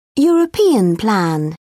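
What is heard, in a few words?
"european plan", British English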